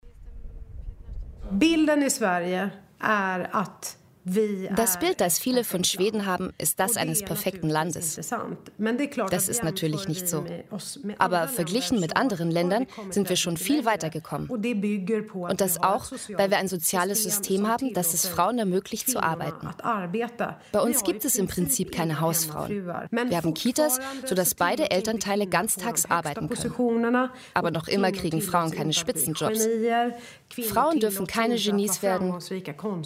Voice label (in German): VoiceOver Jung; Arte